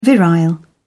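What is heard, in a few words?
"virile", English, British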